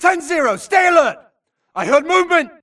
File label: grunt trans Idle To Alert Init; imc; Titanfall 2